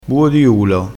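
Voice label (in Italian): "buo di ulo", dialettale crespinese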